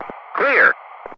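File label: "clear", English, Ground Crew; DCS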